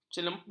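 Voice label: "cempelung", Malay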